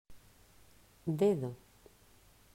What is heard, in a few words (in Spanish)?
"Dedo", pronunciacion; mausschool